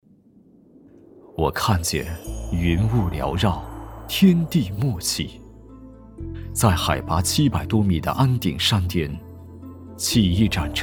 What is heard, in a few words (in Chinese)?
男 大气 柔和; 安顶云雾茶